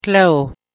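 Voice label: "ghleu", Proto-Indo-European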